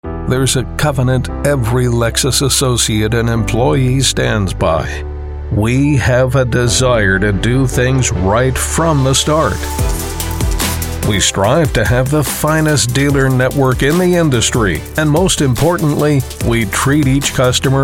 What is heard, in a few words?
E learning and Corporate Narration